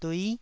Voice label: "dui9", taiwanese syllables

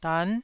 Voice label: "dan9", Taiwanese syllable

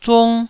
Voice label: "zung1", Taiwanese

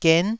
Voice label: "gen9", Taiwanese

